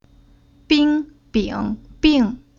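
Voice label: "Bing", Chinese syllable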